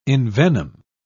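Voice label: "envenom", English, British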